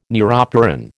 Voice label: "neuropteran", American

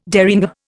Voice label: "derringer", Indian

